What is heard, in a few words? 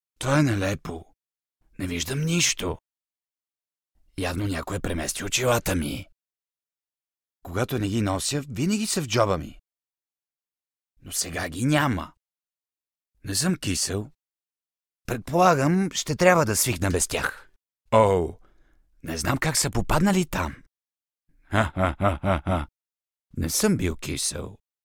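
bulgarian male character; voice demos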